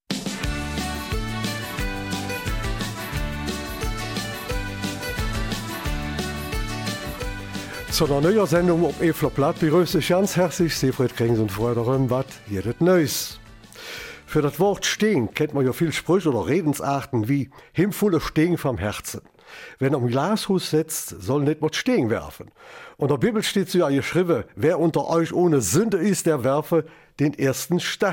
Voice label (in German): Eifeler Mundart; Paläontologe und Weltenbummler